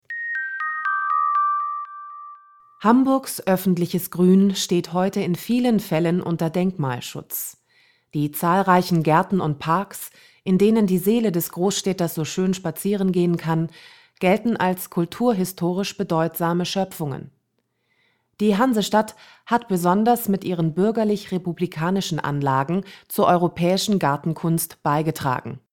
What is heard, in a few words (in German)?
Sprecherdemo Sachtext; Hamburgs Gärten